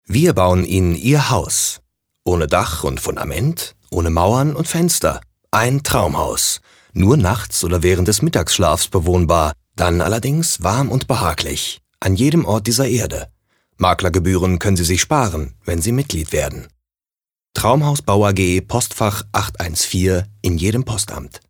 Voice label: Werbung Neutral

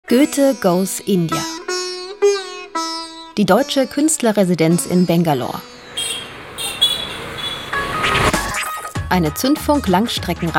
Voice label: Neutral

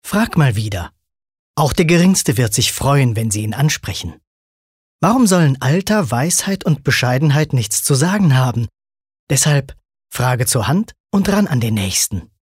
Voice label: Werbung Freundlich

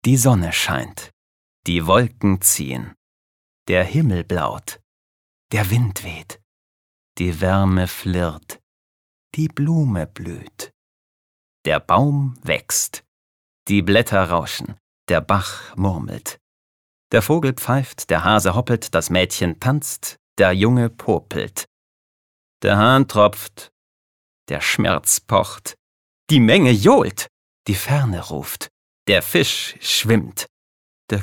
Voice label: Schauspiel, Sanft

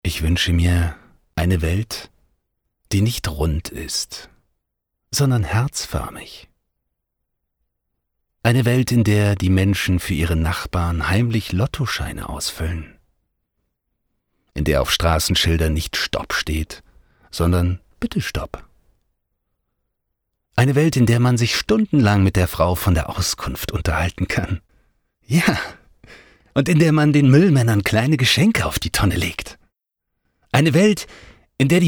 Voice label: Sanft

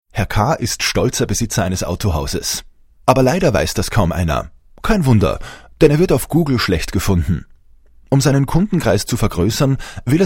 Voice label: Dialekt Österreichisch

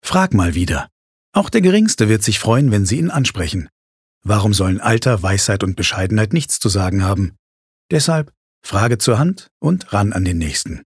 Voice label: Werbung Freundlich

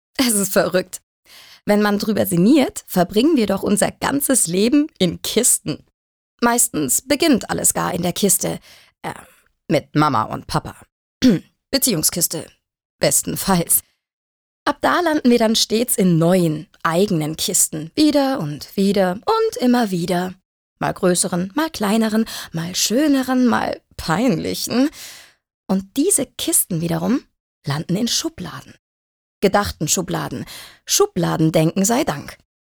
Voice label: Frech; Kisten

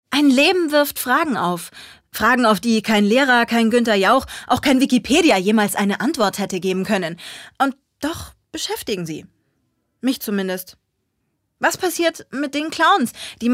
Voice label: Schauspiel, Energisch

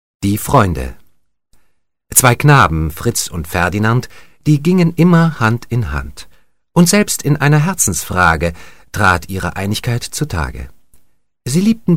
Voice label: Schauspiel Dynamisch

